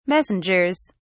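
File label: "messengers", British English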